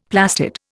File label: "plastid", Indian; Dictionary